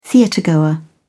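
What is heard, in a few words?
"theatregoer", British English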